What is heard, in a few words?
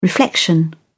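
"reflection", English, uk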